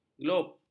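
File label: "glob", Malay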